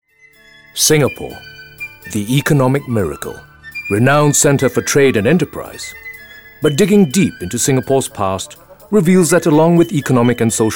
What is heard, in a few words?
Asian English VO